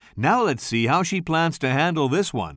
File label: commentator